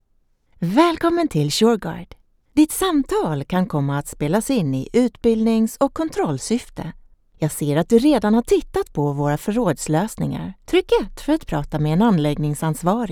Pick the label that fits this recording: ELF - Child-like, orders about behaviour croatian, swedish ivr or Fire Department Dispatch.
swedish ivr